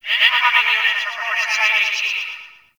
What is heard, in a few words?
Campsite Announcement; Warframe; Tau